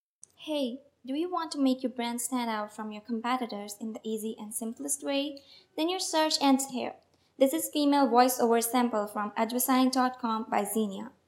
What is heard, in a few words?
Real English Female Voiceover